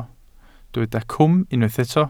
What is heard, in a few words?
"cwm", Welsh